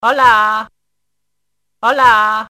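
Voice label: Hola Hola Spanish Ringtone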